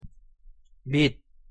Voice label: "밑", pronunciation ko